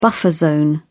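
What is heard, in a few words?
"buffer zone", British English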